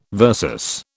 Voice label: "versus", British